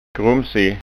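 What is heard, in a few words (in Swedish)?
"grumsig", Scanian Swedish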